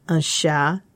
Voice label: "un chat", French